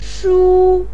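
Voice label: "shu", Mandarin pinyin, first tone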